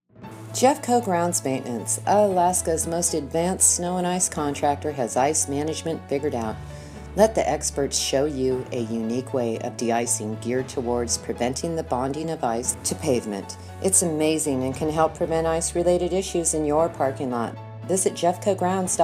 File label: audio commercial; JEFFCO